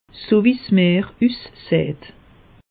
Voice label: "meer", alsace; meteo

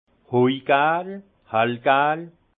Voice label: "hajgel", alsace; jaune paille; vin

